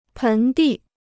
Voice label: "盆地", Chinese

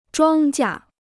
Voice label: "庄稼", Chinese

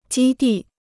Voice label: "基地", Chinese

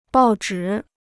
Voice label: "报纸", Chinese

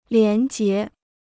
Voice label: "廉洁", Chinese

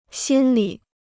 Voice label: "心里", Chinese

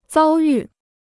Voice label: "遭遇", Chinese

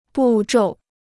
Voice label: "步骤", Chinese

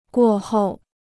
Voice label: "过后", Chinese

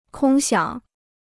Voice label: "空想", Chinese; kōng xiǎng; daydream; fantasy

